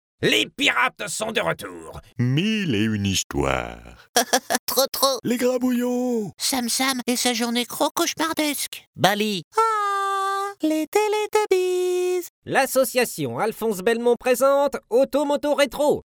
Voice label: french animation